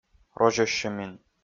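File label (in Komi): "розьӧссьӧмин", Komi, male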